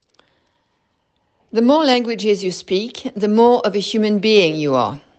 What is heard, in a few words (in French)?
Phrase anglais